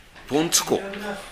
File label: "bontuko", minamiyamagata